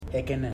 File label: "tongue", Ipili